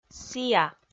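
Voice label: "сіа", Komi, female; audio dict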